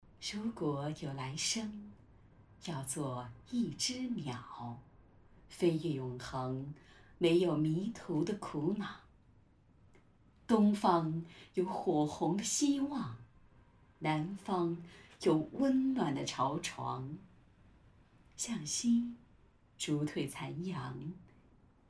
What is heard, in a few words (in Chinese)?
情感类; 闪电试音